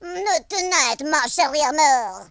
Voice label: boring, French Lover; speech

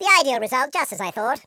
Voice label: "perfect", Patronising

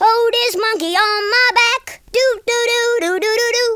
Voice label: ouch, Blues Man speech

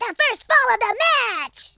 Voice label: first blood, American Wrestler

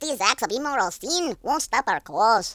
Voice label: uhoh, speech; Worms3D; Spanish Inquisition

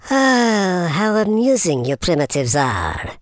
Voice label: "boring", Super Villain; speech

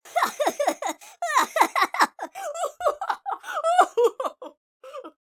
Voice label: happy

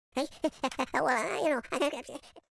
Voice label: pray, Madchester

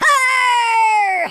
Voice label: "brilliant", Pirate

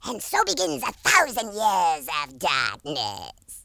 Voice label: victory, Horror

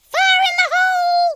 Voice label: "fire", Cowgirl; speech

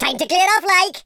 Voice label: run away, speech, Welsh

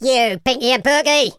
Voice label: "traitor", London Cabbie; speech

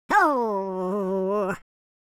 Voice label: sad, speech, Super Hero

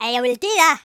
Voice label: yes sir, Geordie; speech

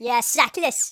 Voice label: "stupid", Geordie; speech

